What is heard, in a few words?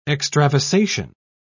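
"extravasation", British English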